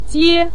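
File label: "jie", Mandarin Chinese, neutral tone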